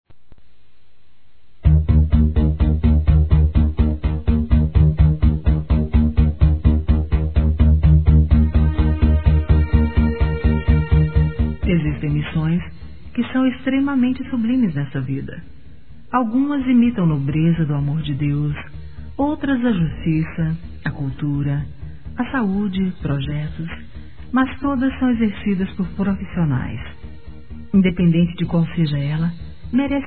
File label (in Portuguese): Evang. Agrad. e Parabéns f, Telemensagens, Gospel; fem; Telemensagens 2017